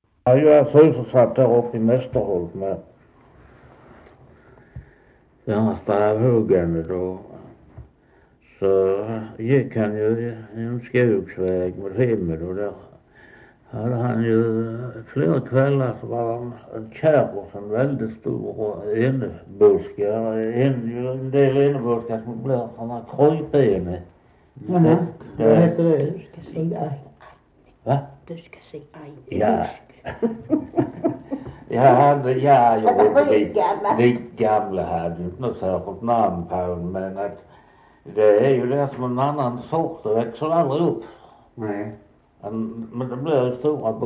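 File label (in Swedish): Västra Torup Skåne, dialekter; Folkminnen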